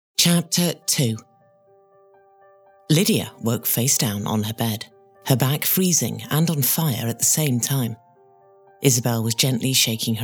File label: Fiction narration